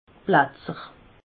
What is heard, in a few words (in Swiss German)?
"platzer", alsace